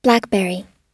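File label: "blackberry", American English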